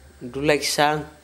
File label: bachelors dorm, Gta, Dialect: Hill; ɖulæjg-saŋ; bachelors dormitory